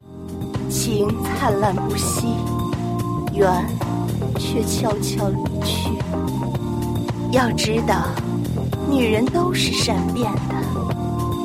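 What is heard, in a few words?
mature queen, female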